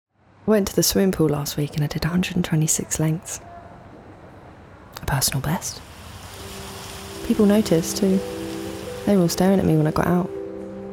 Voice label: Drama, English neutral, Warm, Smooth, Versatile, Engaging